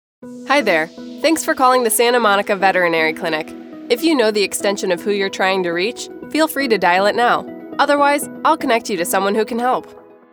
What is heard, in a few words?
IVR